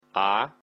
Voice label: belarusian alphabet a